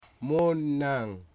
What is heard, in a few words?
"oar", Wamut